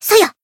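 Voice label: attack, Japanese; prince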